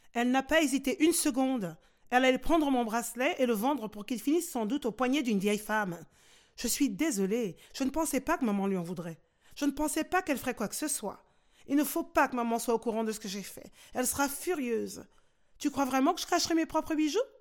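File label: French African, Narrative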